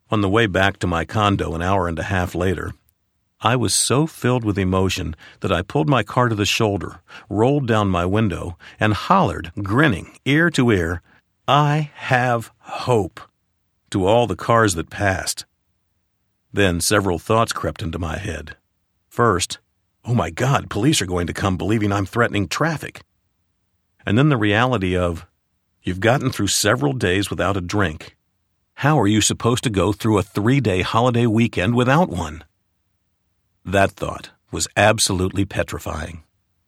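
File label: male nonfiction biography, narrator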